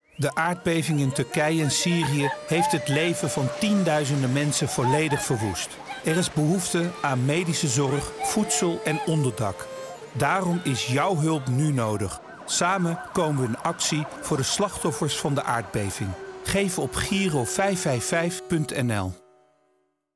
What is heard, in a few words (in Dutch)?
Radiospot; Giro555; Aardbeving Turkije Syrie